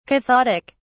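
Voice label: "cathodic", British English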